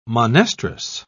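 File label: "monestrous", English, British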